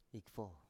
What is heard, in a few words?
"ikfo", Biak